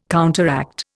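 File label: "counteract", Indian English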